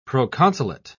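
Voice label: "proconsulate", English, British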